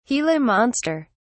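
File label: "gila monster", British English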